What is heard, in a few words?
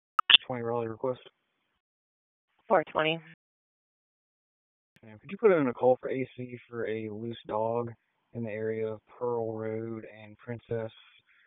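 Police Southeast District Dispatch; Raleigh; p25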